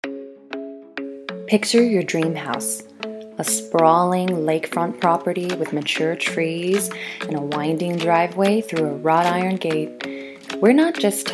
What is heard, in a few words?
Housing Mortgage Voice Over